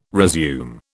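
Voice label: "resume", British English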